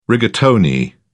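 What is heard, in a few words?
"rigatoni", British English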